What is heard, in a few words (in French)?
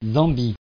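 "Zambie" French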